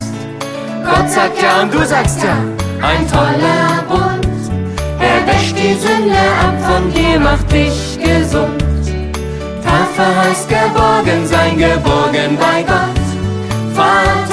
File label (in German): hoerspiel